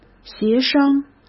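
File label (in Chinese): "协商", Mandarin Chinese; hsk; chinese-flashcards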